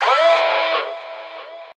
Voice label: "oh" inaudible; Voice